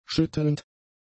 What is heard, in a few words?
"schüttelnd", german; adjectives